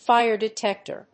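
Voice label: "Fire detector", English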